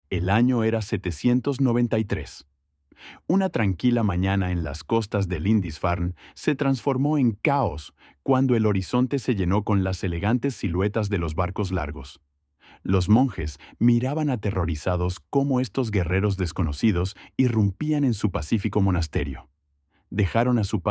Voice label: Practice your Spanish speaking skills